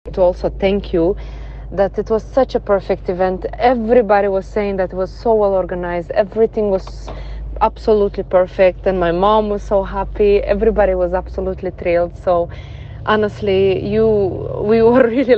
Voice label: testimonial; 60th annivesary